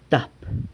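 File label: "tap", four, San Lucas Quiaviní Zapotec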